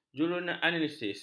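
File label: "juruanalisis", Malay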